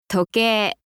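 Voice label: "tokei", Japanese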